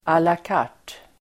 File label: "à la carte", Swedish; Swedish pronunciation